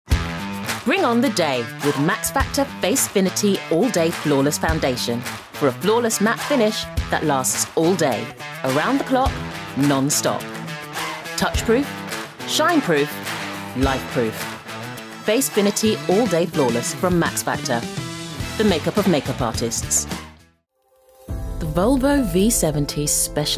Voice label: Commercial